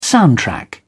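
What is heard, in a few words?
"soundtrack", British English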